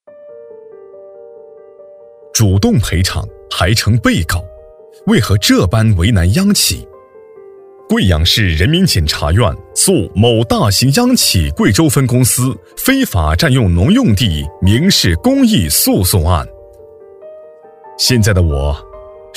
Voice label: 男 警示专题 正气 严肃; 警示案件

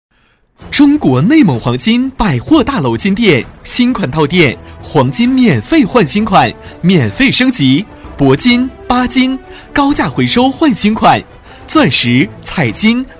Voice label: 男 店面促销广告 年轻激情; 《内蒙黄金》

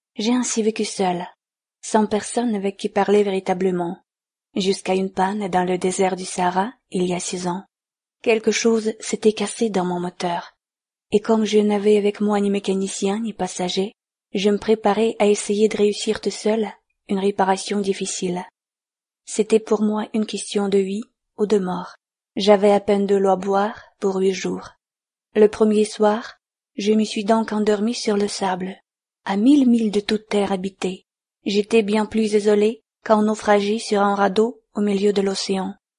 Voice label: 法语女声

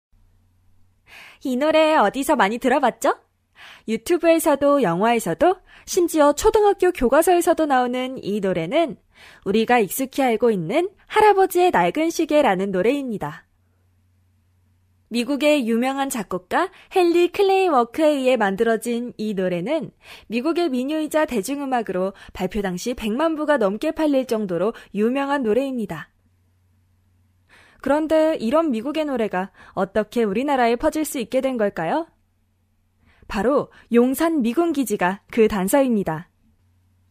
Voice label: 韩语女声 促销风格

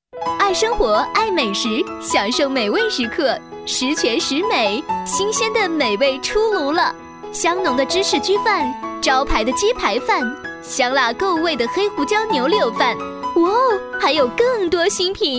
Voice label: 女 广告配音 年轻活泼; 轻松活泼; 奇幻森林餐厅

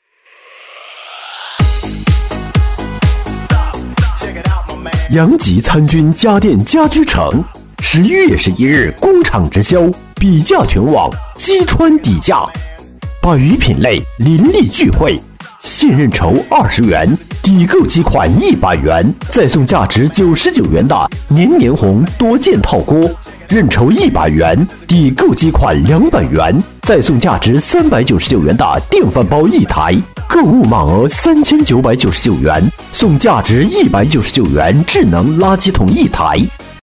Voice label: 男 促销广告 家电家具城 激情气势; 男2-浑厚大气 激情力度

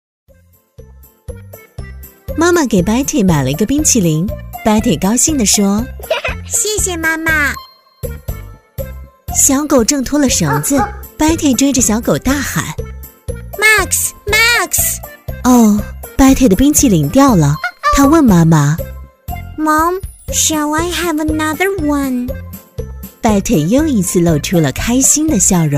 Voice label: 女-中英文幼儿课件【亲切温暖 妈妈儿童角色】; 素人自然

